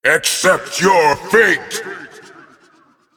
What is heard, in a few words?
Male Titan Attack